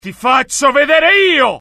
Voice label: anger, dialogue IT; mohaa; attack